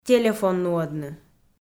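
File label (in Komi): "телефон нуӧдны", Komi, female; kpv; audio dict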